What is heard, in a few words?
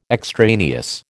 "extraneous", American